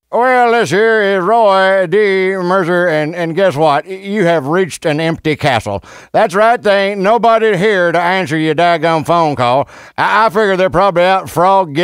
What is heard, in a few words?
Answering Machine Message